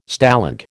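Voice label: "Stalag", American English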